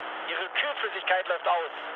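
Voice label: German damaged water mood low, speech; War Thunder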